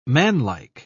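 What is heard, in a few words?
"manlike", British English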